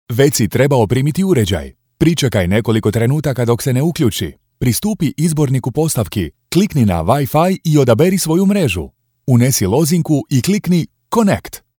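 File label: E Learning, voice over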